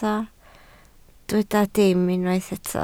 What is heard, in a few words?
"dim", Welsh